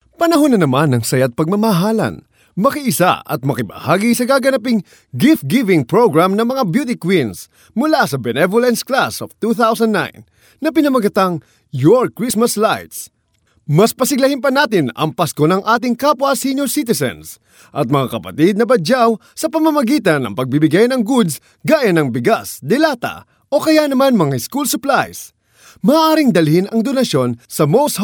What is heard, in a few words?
Tagalog Male Voice Samples